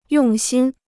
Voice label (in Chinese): "用心", Chinese